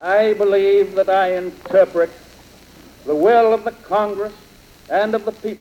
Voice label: Speech New York, american english dialect recordings; Franklin D Roosevelt